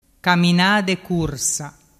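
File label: "caminadecursa", Vernazzese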